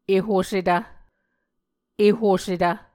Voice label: "ehosetv", muscogee

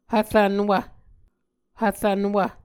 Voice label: "hvrvnwv", Muscogee

